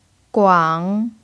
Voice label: "guang3", chinese

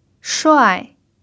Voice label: "shuai4", Chinese

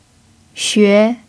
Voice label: "xue2", chinese

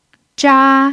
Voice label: "zha1", Chinese

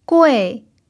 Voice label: "gui4", chinese

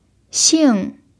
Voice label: "xing4", chinese

